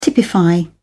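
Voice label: "typify", British English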